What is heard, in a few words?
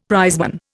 "prizeman", Indian; Dictionary